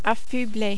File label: "affubler", French